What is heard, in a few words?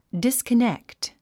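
"disconnect", English word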